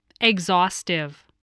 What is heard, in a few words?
"exhaustive", English